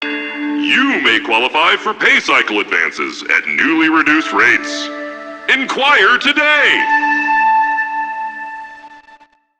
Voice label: PA System, en; Warframe; Solaris Venus